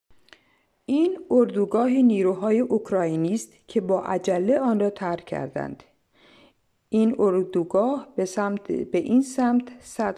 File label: camp voice